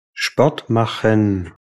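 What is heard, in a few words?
sport machen, German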